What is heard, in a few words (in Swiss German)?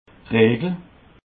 "regel", alsace